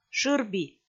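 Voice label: "шырби", Komi, female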